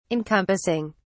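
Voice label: "encompassing", British English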